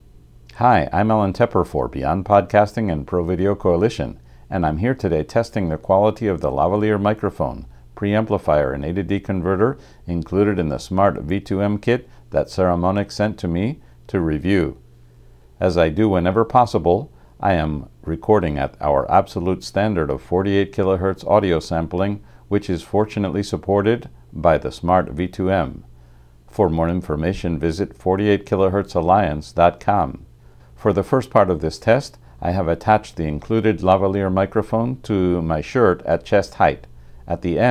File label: solo lav flat; Saramonic V2M